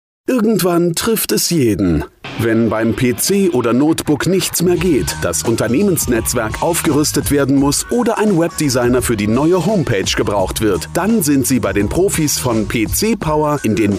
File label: Spot; PC Power